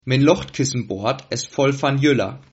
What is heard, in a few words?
hovercraft, Sylt Frisian; Frisian (North - Sylt)